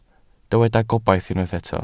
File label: "gobaith", Welsh